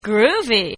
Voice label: Woman Says Groovy; Talking; Voice